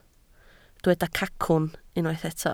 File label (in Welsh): "cacwn", Welsh